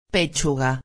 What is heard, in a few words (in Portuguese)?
"pechuga", espanhol